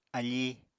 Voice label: "afí", apatani